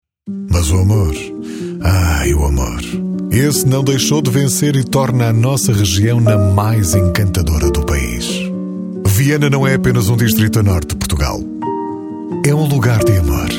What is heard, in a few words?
portuguese automotive